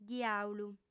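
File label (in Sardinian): "diàulu", female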